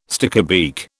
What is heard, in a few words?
"stickybeak", British